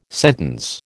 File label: "sentence", American